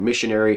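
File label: "missionary", US English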